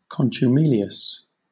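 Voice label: "contumelious", British English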